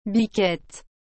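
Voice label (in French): "biquette", French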